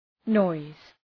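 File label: "noise", English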